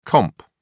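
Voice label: "comp", British English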